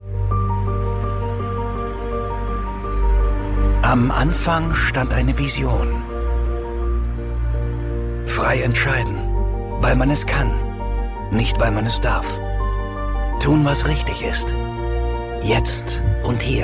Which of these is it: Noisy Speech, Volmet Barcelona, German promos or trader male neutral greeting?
German promos